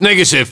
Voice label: negative, radio; codmod